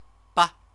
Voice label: "ba", Chinese

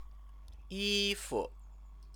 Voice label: "yifu", Chinese

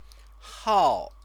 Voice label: "hao", Chinese; 号; day of month; noun

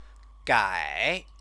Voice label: "găi", Chinese; change verb

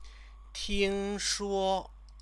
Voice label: "tingshuo", Chinese; 听 说; it is said that; verb; Chinese lessons

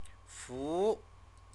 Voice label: "fu", Chinese

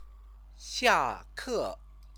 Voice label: "xiake", Chinese; 下 课; finish classes; verb-object

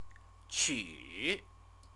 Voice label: "qu", Chinese

